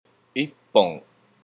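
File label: "ippon", Japanese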